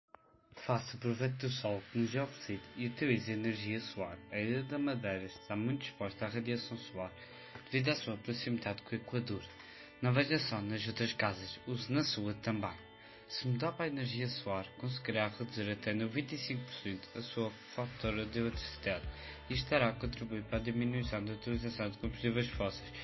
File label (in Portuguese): Spot publicitario radio; Aposta Energias Removaveis